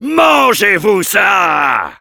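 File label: yell, French; Heavy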